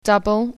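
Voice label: "double", French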